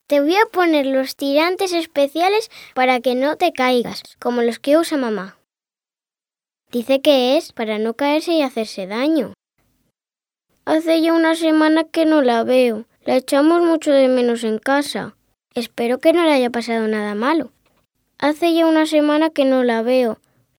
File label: locutora nina, child Spanish voice over, Spanish girl voice over; Spanish child voice over services